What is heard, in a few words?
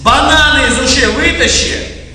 Hurry, Worms speechbanks; Slonik